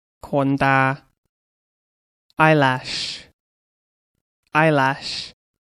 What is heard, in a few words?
"eyelash", English; vocab body